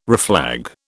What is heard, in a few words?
"reflag", British